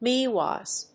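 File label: "meows", English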